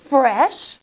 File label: GIRL